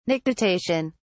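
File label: "nictitation", British English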